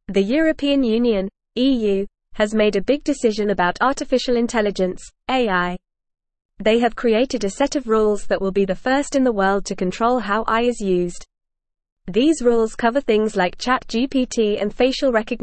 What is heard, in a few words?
Upper Intermediate FAST Reading, English; English Newsroom; EU Reaches Historic Agreement on Comprehensive AI Regulations